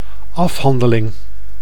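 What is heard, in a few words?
"afhandeling", Dutch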